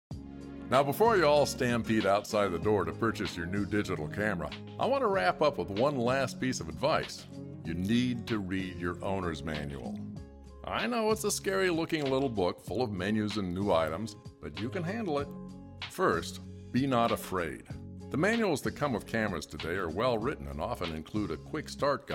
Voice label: Narration